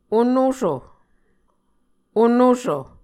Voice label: "onoso", Muscogee